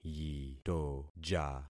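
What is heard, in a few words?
"yitoja", concatenated syllables; Affixing experiment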